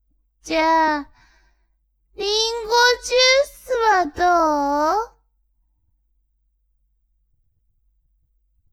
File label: woman